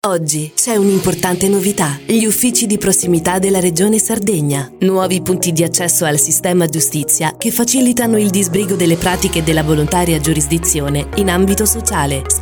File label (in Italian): spot radio 30 secondi